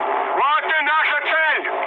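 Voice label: Russian near waypoint mood high, speech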